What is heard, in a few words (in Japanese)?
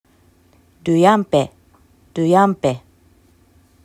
"ルヤンペ", アイヌ語; ruyanpe; アイヌ語クイズ; ルイ ruy・激しい アン an・ある ペ pe・もの